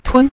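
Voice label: "tun", Mandarin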